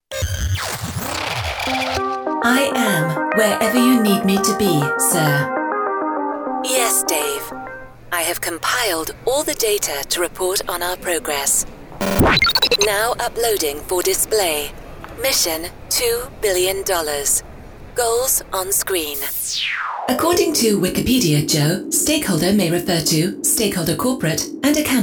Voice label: robot light mix